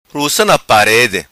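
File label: "Ruca Na Parede", patua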